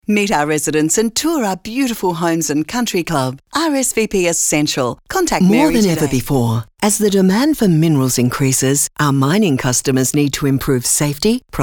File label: Soft Sell